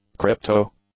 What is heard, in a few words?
"crypto", English; adjectives